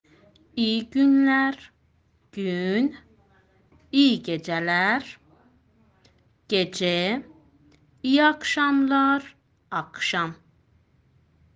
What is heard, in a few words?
turkish